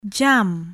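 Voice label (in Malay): "jam", Malay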